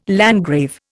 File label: "landgrave", Indian